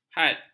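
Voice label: "had", Malay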